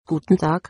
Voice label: "hello", german